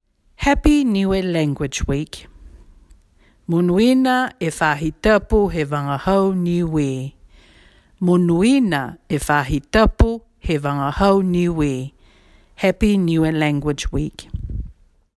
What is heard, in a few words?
happy niue language week, Niue Language; 2022 Pacific Language Weeks